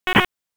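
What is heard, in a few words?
Wake Sheriff's Office, p25; WSO CID 7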